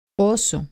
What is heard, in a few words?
"bear", spanish latin american